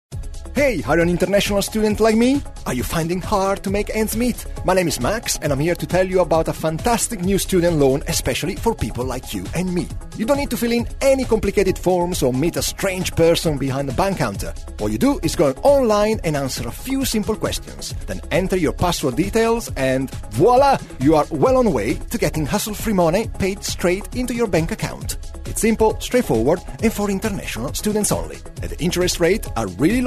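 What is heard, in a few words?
Italian English, male, explainer; accent of italy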